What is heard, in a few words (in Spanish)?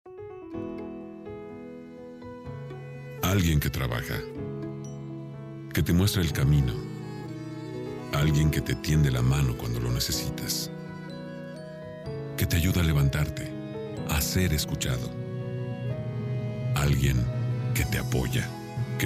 Spot Radio